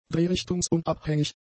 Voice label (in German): "drehrichtungsunabhängig", German; adjectives